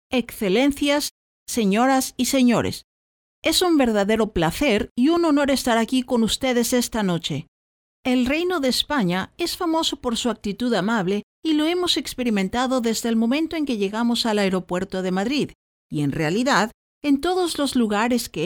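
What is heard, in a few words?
Spanish Spain female narrative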